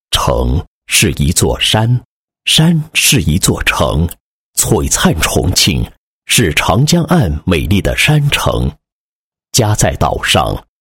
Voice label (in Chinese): 男 项目解说 解说; 广阳岛危岩